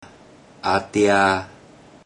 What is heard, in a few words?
"a3tia3", Teochew; Relatives